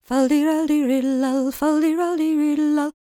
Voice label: WELSH F, World Voices